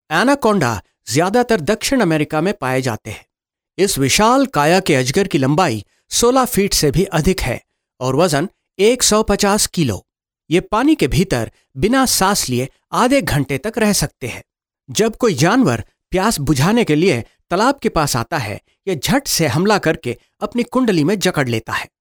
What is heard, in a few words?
male hindi voice over talent narrative